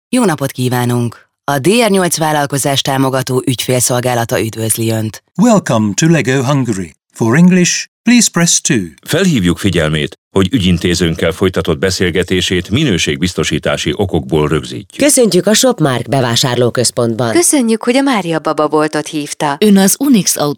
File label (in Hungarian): ivr referencia